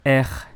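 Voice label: "R", French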